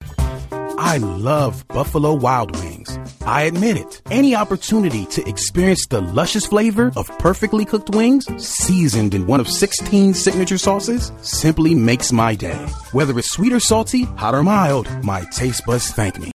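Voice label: American English, Commercial; GoLocalise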